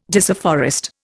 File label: "disafforest", Indian